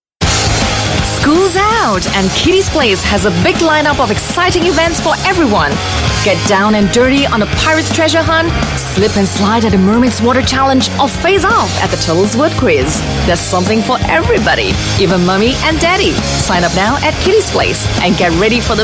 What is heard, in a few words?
Asian English schools out